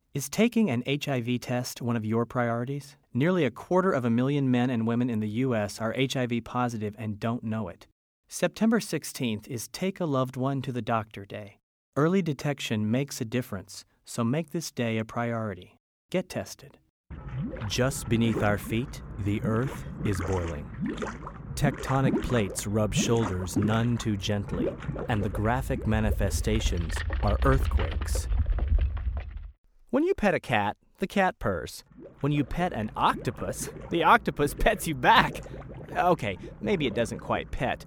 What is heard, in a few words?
Narration